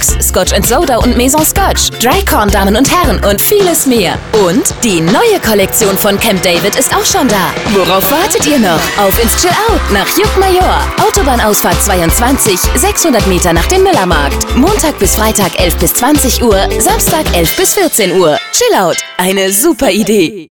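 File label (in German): werbung schlussverkauf; schluverkauf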